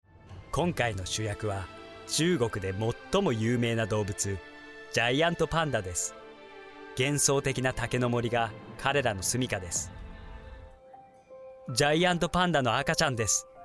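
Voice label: Japanese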